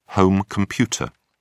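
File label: "home computer", British English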